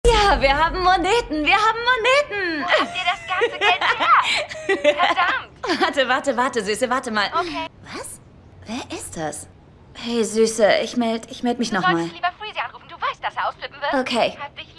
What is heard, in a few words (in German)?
Fremdtake Dynamisch